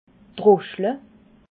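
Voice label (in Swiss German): "droschtle", Alsatian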